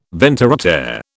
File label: "ventre Å terre", British